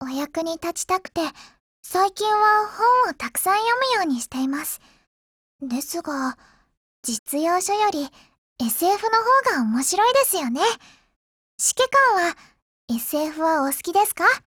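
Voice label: DIALOGUE WEDDING Japanese; AKAlfa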